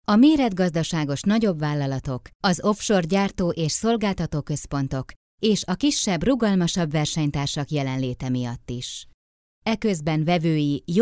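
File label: Hungarian, female, narration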